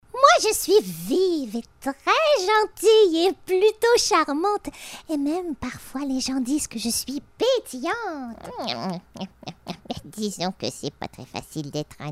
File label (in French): Demo voix 3 personnages